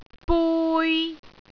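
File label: "bui3", Cantonese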